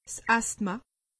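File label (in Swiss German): "asthma", Alsatian, Bas-Rhin; medecine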